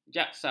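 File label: "jaksa", Malay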